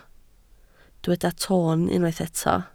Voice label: "toon", Welsh